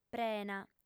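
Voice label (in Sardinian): "prena", female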